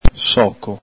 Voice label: "soco", Veneto

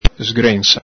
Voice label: "sgrensa", Veneto

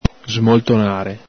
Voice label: "smoltonare", Veneto; vb.intr.; ENG: head-butt; ITA: scornare, cozzare; el Galepin

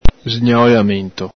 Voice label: "sgnaolamento", Veneto

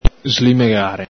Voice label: "slimegare", Veneto

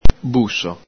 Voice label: "buso", Veneto; ENG: box, boxwood; ITA: bosso

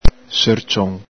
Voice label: "sercion", Veneto

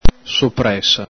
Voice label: "sopresa", Veneto; N.fem. sing.; ENG: salami (big s.); el Galepin

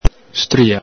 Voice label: "stria", Veneto; N.fem. sing.; ENG: witch; ITA: strega; el Galepin

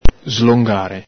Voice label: "slongare", Veneto

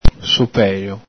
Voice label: "sopelo", Veneto

